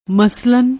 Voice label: "maslan", Punjabi; ਮਸਲਨ